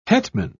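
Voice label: "hetman", British English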